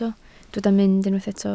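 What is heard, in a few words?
"mynd" Welsh, spoken word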